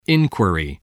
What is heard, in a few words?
"inquiry", voice spelling